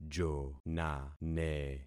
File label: "jonane", concat syllables; Affixing experiment